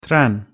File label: "tren", tr